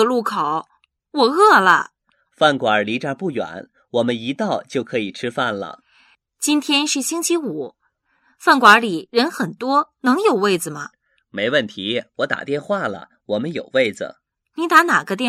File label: Workbook Dialogue